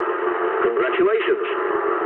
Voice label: eng player killed enemy mood low, speech eng